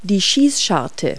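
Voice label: "loophole", English word; Multi-Lingual Talking Picture Dictionary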